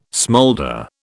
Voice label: "smoulder", British